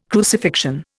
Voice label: "crucifixion", Indian